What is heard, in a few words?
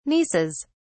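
"nieces", British English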